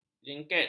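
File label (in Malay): "jengket", Malay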